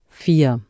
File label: "vier", German